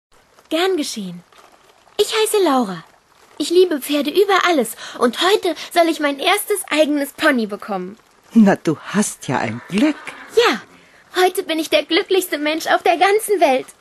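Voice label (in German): Hörspiel, frauen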